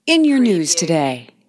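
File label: News Female News Today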